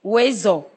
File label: youre welcome, ewe